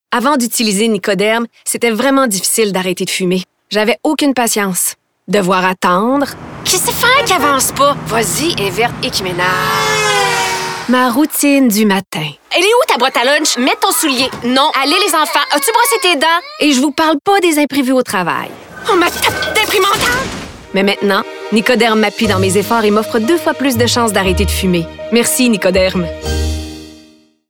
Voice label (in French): Publicité; Nicoderm